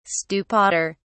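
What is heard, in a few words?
"stupider", English, uk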